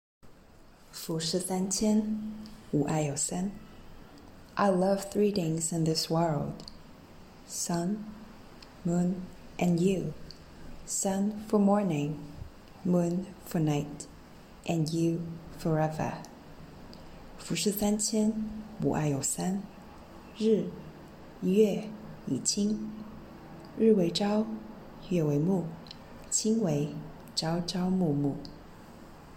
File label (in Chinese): 英语